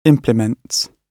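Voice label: "implements", British English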